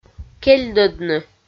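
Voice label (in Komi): "кельдӧдны", Komi, female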